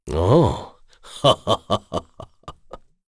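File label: Vox Happy, Korean; Dakaris; kingsraid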